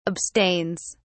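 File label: "abstains", British English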